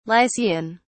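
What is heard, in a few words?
"lycian", British English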